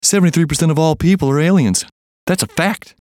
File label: VOICE COMICAL; VO COMEDY